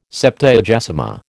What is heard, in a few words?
"Septuagesima", American